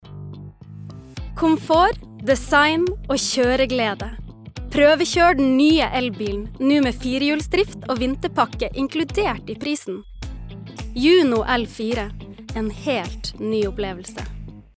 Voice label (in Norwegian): Reklame, norsk, kvinne